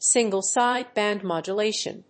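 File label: "single sideband modulation", English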